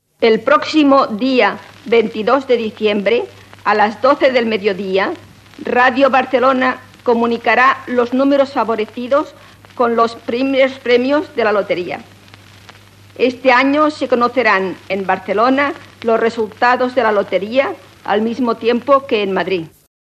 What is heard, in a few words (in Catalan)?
promo loteria; any 1924 o 25